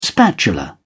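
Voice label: "spatula", British English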